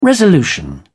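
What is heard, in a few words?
"resolution", British English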